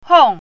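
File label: "hong4", Mandarin Chinese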